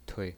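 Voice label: "tui", pinyin voice, neutral tone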